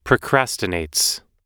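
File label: "procrastinates", US English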